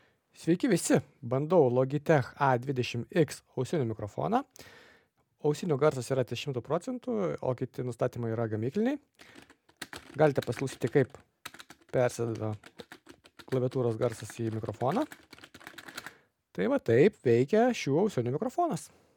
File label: A20 X mic; Logitech Astro A20 X